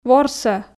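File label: "ворсӧ", Komi, female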